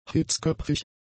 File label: "hitzköpfig", German; adjectives